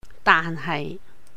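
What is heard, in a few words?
"daahn haih", Cantonese; 但係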